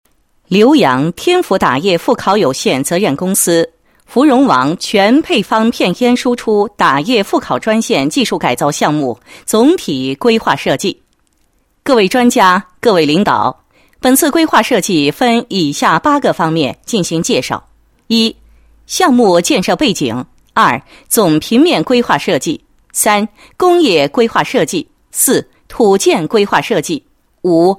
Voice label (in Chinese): 女 项目规划; 打叶复烤专线技术改造项目总体规划设计